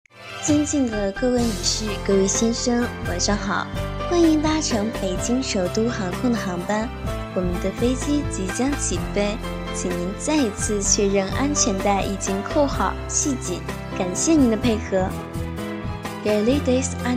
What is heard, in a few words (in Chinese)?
空乘广播